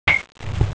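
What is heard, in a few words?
"qing wa", Mandarin Chinese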